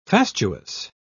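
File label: "fastuous", British English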